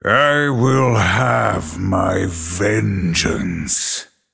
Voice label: Male Night Elf Bark; Illidan Stormrage

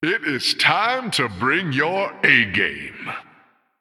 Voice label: Male Human New Game

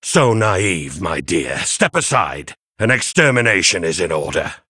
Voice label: Male Human Victory; Daelin

